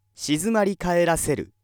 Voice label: male